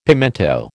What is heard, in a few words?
"pimento", American